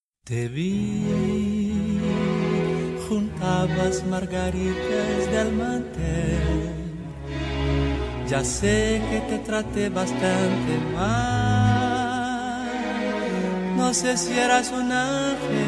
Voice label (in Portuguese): Romantico Masculino, telemensagem romantica masculina